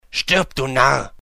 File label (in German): Narr; Stirb, du Narr!